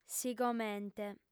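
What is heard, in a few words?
"sigomente", lingua sarda, female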